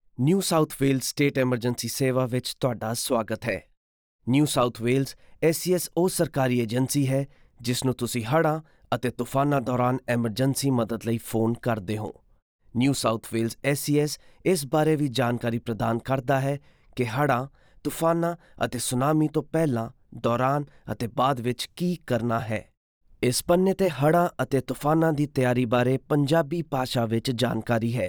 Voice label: punjabi